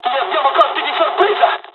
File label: japanese first wave, dialogs italian; War Thunder